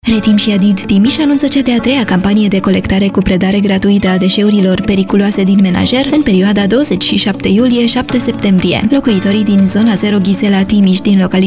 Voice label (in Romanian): Spot radio; Periculoase din menajer; Zona 0 Ghizela; campanie colectare zona 0 ghizela